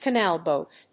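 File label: "canal boat", English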